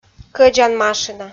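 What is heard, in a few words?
"кӧдзан машина", Komi-Zyrian, female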